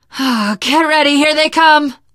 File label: incoming, teengirl survivor voice; source engine; left 4 dead 2